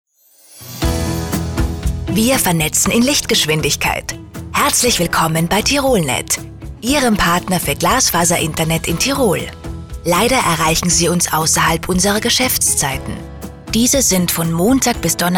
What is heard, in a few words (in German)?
Nachtansage; Tirolnet; telefonansagen sonstige